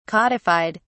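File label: "codified", English, British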